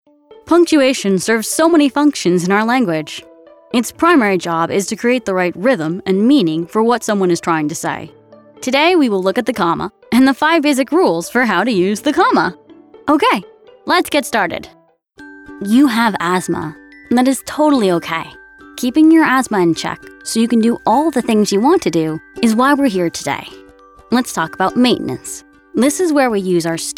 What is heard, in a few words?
E Learning